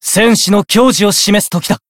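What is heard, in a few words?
encount fear, Japanese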